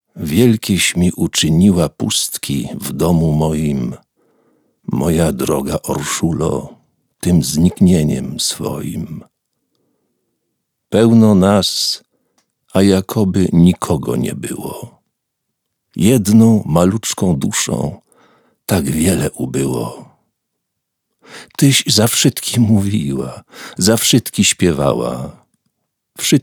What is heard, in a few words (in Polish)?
Lektor, Studio; Studio Nonagram